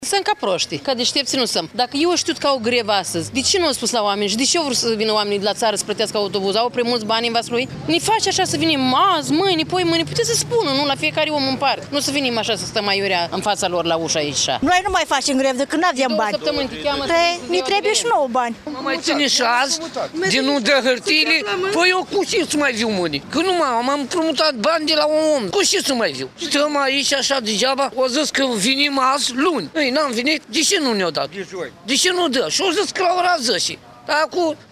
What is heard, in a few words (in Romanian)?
Vox Pop